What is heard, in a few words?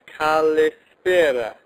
kalispera pronounciation